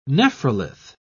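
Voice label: "nephrolith", British English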